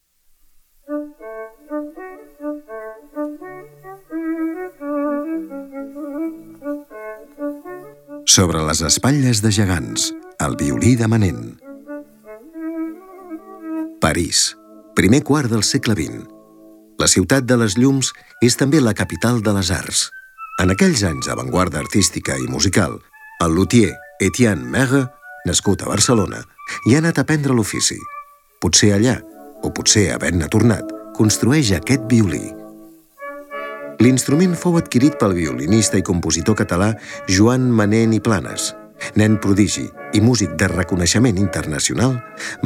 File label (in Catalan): audioguia catala; delamusica